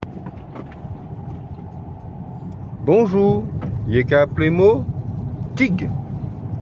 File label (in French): Dialogue en creole